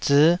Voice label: "zii5", Taiwanese syllable

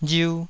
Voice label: "riu2", taiwanese

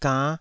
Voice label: "gann5", Taiwanese syllable

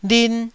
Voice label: "lin1", Taiwanese syllable

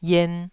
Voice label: "ien2", taiwanese syllables

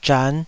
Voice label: "zhan5", Taiwanese syllable

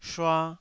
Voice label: "shua2", taiwanese Syllables

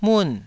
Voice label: "mun4", Taiwanese

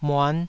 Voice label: "muan5", Taiwanese

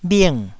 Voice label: "bhing4", taiwanese

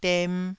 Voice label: "dem2", Taiwanese syllable

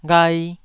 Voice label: "ghai2", Taiwanese

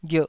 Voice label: "ghierh2", Taiwanese syllable

